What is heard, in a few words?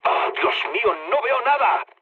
searchlite, mood low, speech; War Thunder